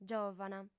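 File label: "giòvana", Sardinian, female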